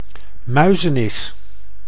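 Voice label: "muizenis", Dutch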